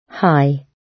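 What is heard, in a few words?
"high", British English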